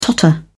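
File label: "totter", English, British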